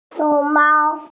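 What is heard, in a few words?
"xiong mao" Chinese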